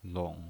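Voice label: "long", pinyin, Mandarin Chinese, third tone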